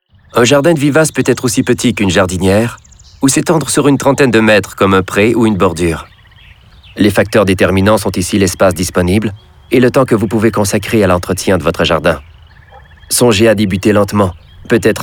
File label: narration